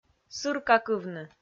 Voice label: "сыркакывны", Komi

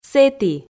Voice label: "сэті", Komi

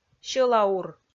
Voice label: "сьӧла-ур", Komi

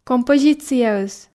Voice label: "композицияыс", Komi

